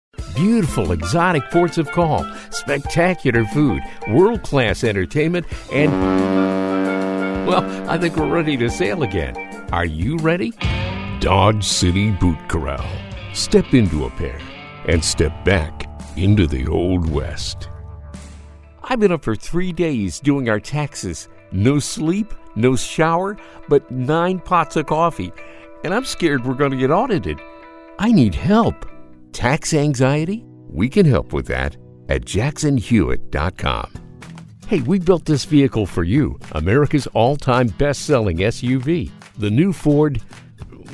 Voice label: Commercial, Professional Male Voice Actor